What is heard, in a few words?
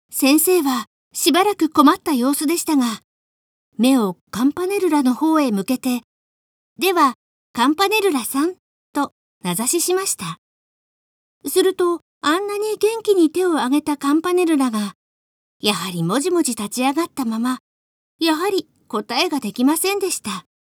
girl; 銀河鉄道の夜